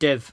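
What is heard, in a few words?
"div", Cromarty Fishertoun dialect; [dihv]; do; Verb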